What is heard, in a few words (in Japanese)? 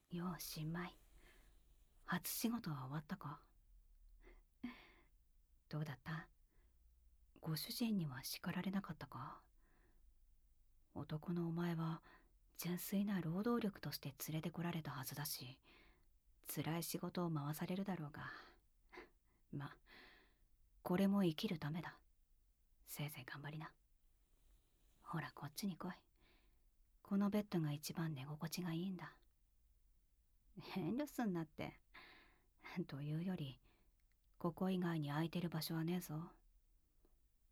密着囁き耳舐め手コキ